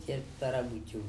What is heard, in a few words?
"cEp tara bucu" root of mangrove, andamanese